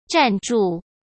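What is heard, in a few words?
"站住", Mandarin Chinese; hsk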